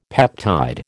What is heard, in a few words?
"peptide", American